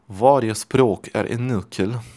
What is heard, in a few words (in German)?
schwedisch